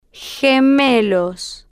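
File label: "gemelos", slow, spanish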